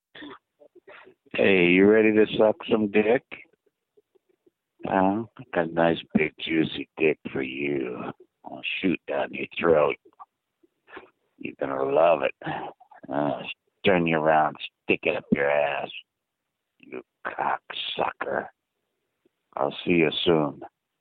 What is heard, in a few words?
voicemail